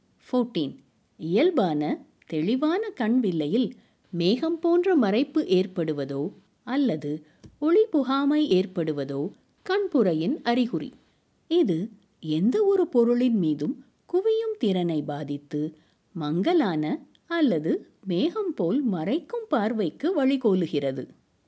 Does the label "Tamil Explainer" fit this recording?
Yes